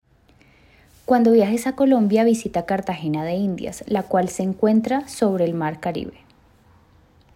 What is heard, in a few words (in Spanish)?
Frase Femenina